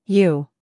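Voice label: "u", American English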